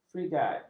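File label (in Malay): "frigat", Malay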